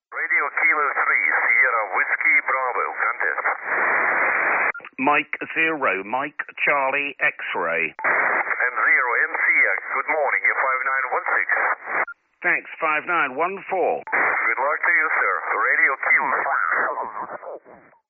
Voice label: Perfect single QSO example